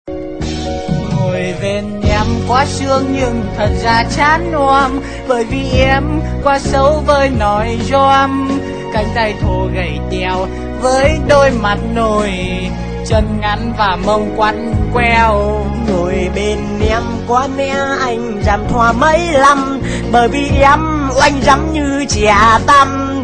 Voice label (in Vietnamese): nhac chuong che bua